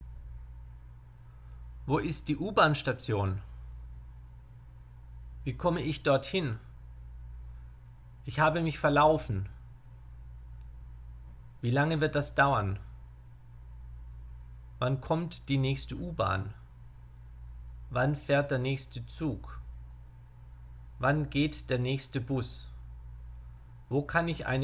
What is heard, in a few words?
German Lesson Inquiry